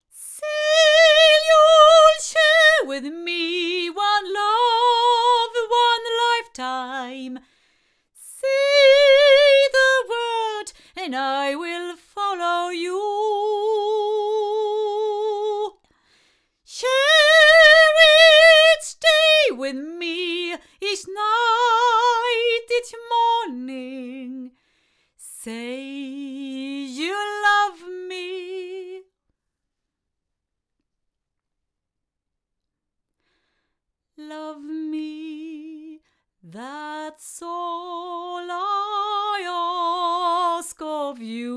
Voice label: female; GoldenAge TC1; microphones